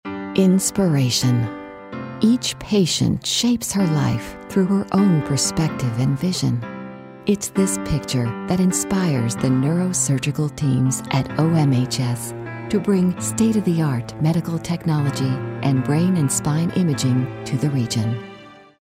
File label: caring